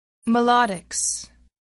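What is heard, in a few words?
"melodics", English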